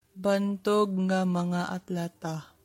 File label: Cebuano